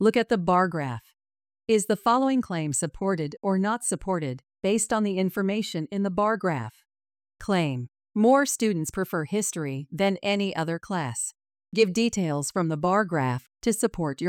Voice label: Speaking Practice; academic language development